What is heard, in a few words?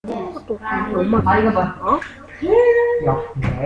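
"pick", spoken word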